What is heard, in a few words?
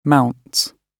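"mounts", British English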